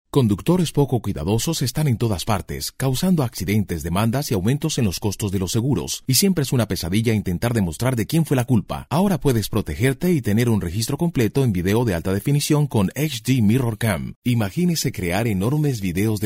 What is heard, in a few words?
SPANISH